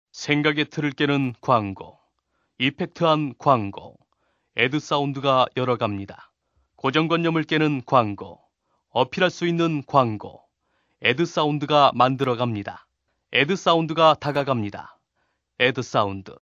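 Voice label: Korean male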